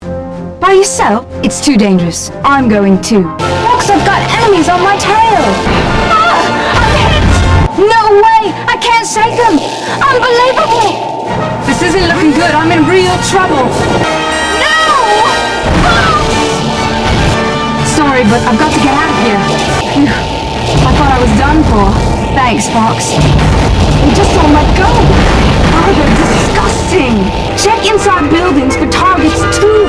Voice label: Voice English; Assault